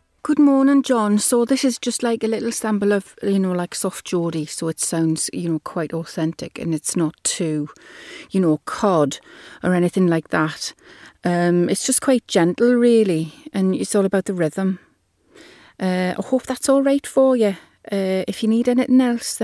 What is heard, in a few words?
geordie accent